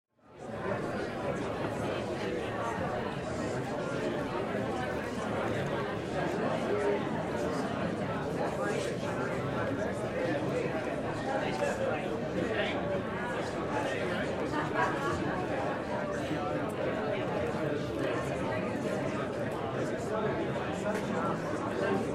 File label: Crowd talking in a close area